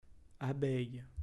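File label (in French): "abeille", French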